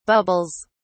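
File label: "bubbles", English, British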